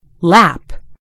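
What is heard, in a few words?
"lap", American English; gcompris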